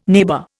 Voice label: "neighbour", Indian